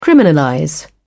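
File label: "criminalize", British English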